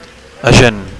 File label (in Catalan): "agent", Catalan